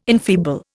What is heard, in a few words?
"enfeeble", Indian